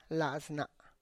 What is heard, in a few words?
"laz na", my Palm, tlacochahuaya